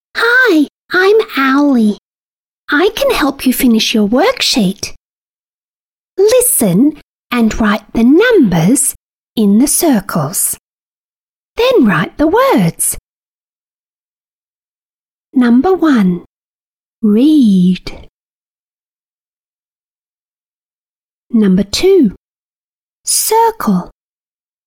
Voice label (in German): englisch hoeruebung; spatzenpost; arbeitsblatt